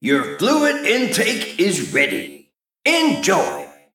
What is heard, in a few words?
Male Mech General